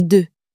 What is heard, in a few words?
"deux", French